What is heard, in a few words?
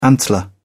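"antler", British English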